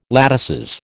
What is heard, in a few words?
"latices", American